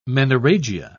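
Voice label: "menorrhagia", British English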